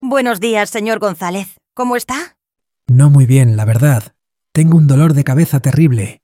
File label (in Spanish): Dialogo, espanhol; como dizer que você não está bem em espanhol